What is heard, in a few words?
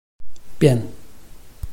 "bian5", Chinese